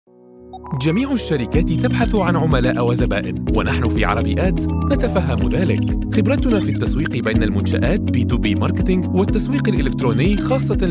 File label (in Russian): Мужской арабский